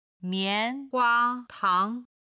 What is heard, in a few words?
"mian2 hua1 tang2", chinese